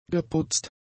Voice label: "geputzt", German; adjectives